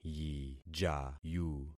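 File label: "yijayu", concatenated syllables; Affixing experiment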